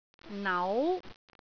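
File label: "nau2", Cantonese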